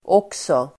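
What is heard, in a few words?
"också", Swedish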